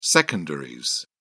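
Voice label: "secondaries", British English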